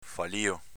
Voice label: "falio", dialetto veneto; agg., part. pass. fallito

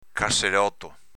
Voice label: "casseloto", Veneto; s.m. congegno mal funzionante

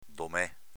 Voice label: "dome", dialetto veneto; avv. solo (in; disuso

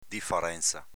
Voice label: "difarenza", dialetto veneto; difarčnza s.f. differenza, diversitā

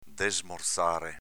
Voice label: "desmorzare", dialetto veneto; desmorzāre v. spegnere

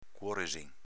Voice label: "cuoresin", dialetto veneto; s.m. parte

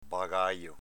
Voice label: "bagajo", dialetto veneto

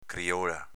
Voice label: "criola", dialetto veneto

